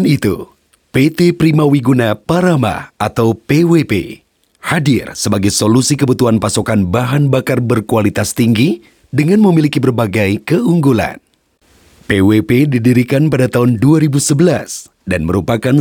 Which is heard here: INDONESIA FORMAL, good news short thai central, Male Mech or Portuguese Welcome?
INDONESIA FORMAL